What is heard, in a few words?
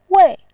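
"wei", Mandarin Chinese, tone 4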